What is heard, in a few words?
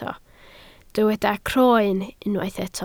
"croen", Welsh